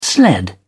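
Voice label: "sled", British English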